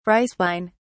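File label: "rice wine", British English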